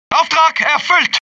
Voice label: german success, German; mohaa